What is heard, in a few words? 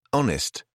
"honest", British English